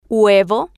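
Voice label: "huevo", Spanish, female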